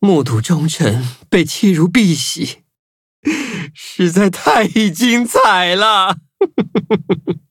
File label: loading zh-hant; Cookie Run